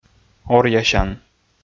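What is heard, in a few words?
"оръясян", Komi, male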